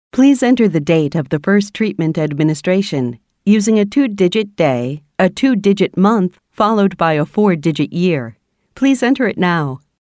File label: IVR